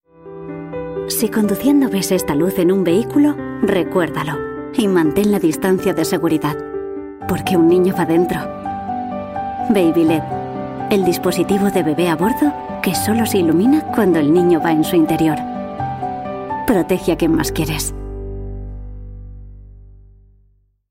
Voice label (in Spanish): CASTELLANO PUBLICIDAD CERCANA; BABYLED